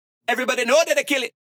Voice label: Everybody Know That I Kill It, 150 BPM, Dubstep, Vocals; Cymatics; Dubstep Starter Pack